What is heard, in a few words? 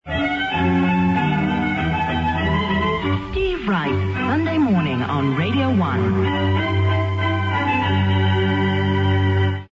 sunday jingle